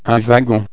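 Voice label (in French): "wagon", French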